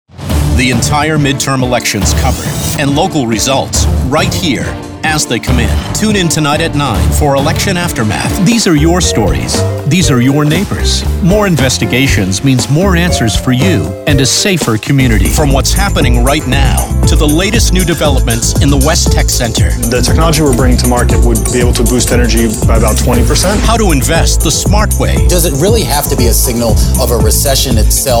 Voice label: TV News Imaging